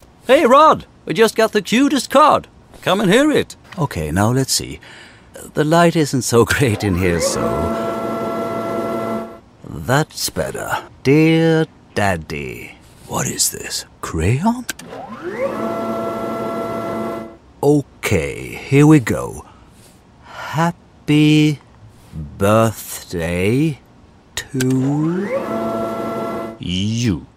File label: English cartoon character